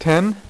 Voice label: "6", poker; iPoker 2000